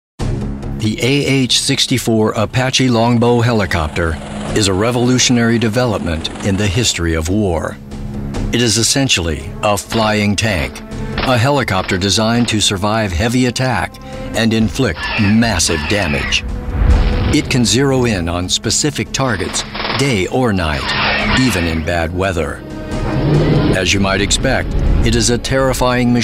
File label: narration; apache